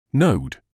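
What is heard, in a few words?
"node", British English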